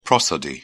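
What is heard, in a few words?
"prosody", British English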